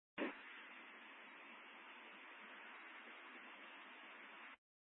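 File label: Incident Tactical, scanner audio recording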